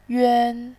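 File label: "yuan", Mandarin Chinese, tone 1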